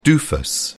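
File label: "doofus", British English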